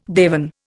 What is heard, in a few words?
"dewan", Indian